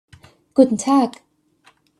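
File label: "Guten Tag", German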